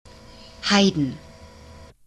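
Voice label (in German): "Haydn", German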